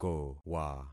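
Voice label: "kowa", concatenated syllables; Affixing experiment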